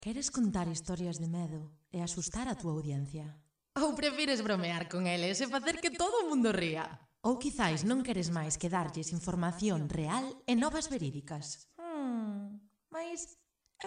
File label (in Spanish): GALLEGO FEMENINO, video comercial